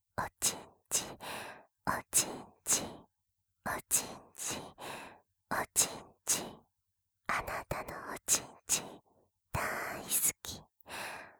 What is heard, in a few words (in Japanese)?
淫語ループトラック 右